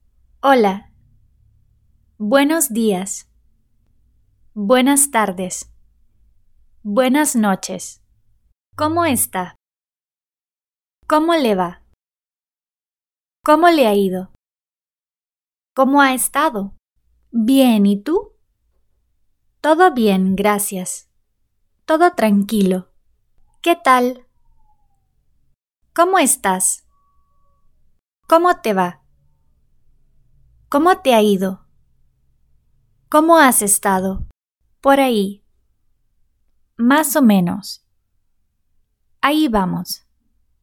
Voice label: Spanish greetings